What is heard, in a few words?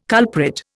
"culprit", Indian